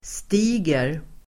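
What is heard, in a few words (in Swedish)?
"stiger", Swedish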